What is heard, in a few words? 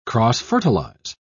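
"cross-fertilise", British English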